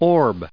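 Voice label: "orb", US English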